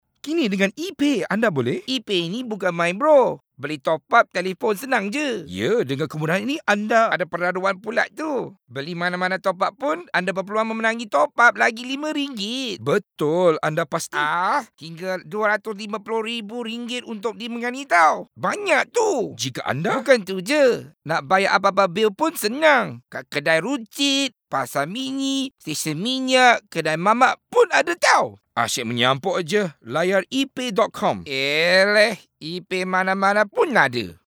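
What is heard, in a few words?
Character VO Malay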